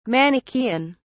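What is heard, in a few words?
"manichaean", British English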